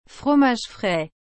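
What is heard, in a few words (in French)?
"fromage frais", French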